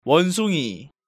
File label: "Wonsungi", Korean